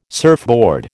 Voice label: "surfboard", American